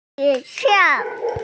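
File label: "xue2xiao4", Mandarin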